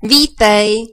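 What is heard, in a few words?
welcome, Brazilian Portuguese; gcompris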